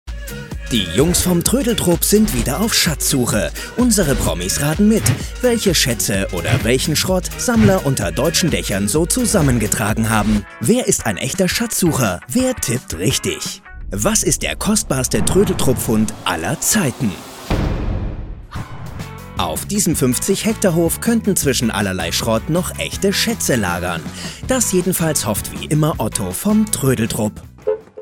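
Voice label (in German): Kommentar Dynamisch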